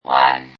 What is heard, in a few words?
Robot Voice "0"